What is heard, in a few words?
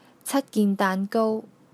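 "gin6 daan6gou1", Cantonese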